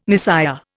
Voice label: "Messiah", Indian